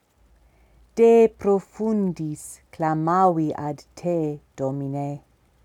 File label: In Classical Pronunciation; de profundis